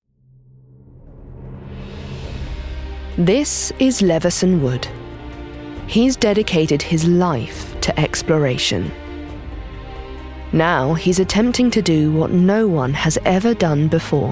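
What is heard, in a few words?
documentary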